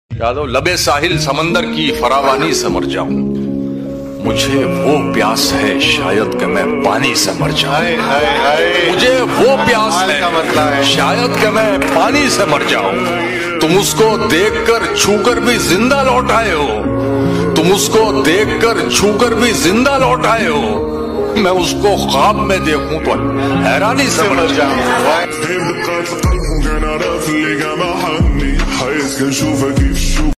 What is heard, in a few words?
Shayari Ringtone